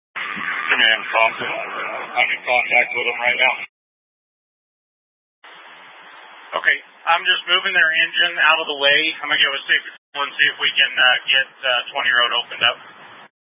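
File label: Incident Tac 1, Scanner audio recording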